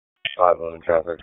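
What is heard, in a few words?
Police Southeast District Dispatch, p25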